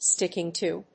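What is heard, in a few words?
"sticking to", English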